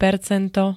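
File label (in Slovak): "percento", Slovak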